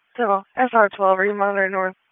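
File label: Police District Dispatch; wake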